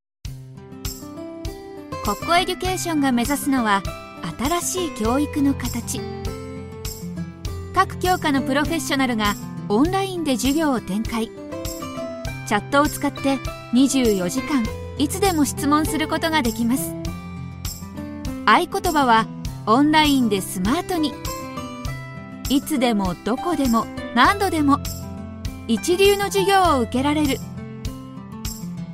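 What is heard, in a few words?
female, Japanese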